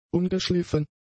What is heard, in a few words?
"ungeschliffen", German; adjectives